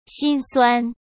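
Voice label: "辛酸", Mandarin Chinese; chinese-flashcards; hsk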